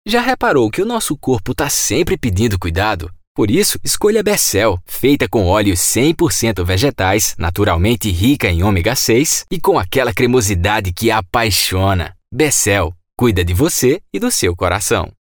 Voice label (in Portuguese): OFF Sotaque Rio Grande do Norte Paraiba Alagoas Sergipe